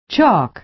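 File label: "chalk", American English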